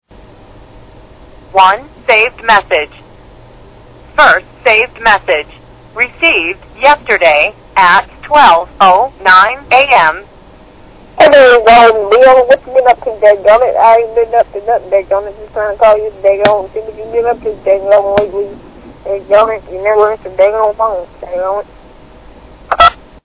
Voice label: Voice Mail